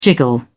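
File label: "jiggle", British English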